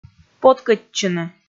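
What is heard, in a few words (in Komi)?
"поткӧдчыны" Komi, female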